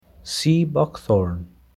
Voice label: Sea Buckthorn, girl